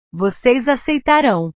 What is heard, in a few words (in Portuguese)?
"vocês aceitarão", portugues; Ustedes aceptarán; verbos